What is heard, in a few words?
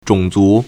"zhong3 zu2", Chinese